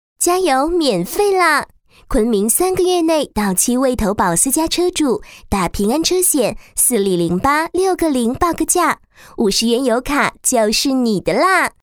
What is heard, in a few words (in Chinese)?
女19 广告 电台 甜嗲, 国语女声配音; 林志玲风格; 平安车险加油免费啦